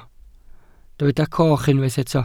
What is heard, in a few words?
"coch", Welsh